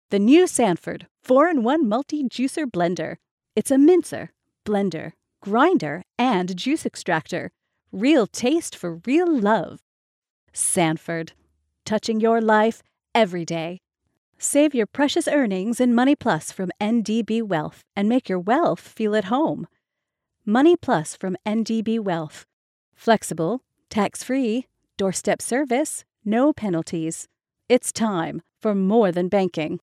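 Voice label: English USA female, english american voice over talent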